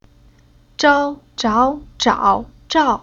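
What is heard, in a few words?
"Zhao", Chinese syllable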